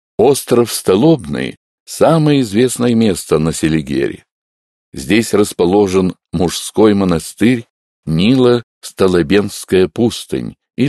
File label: russian male